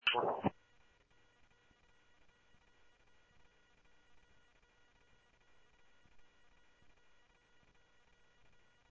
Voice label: Light Rail Secur Light Rail, scanner audio; ThompsonPeakHigh